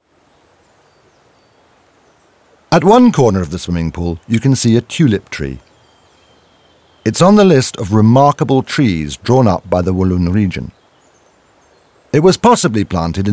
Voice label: audioguide en enfant; modave